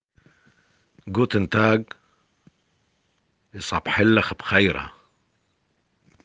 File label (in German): guten tag aramaeisch